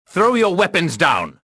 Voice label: suspicious; XBOX